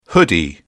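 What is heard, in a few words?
"hoodie", British English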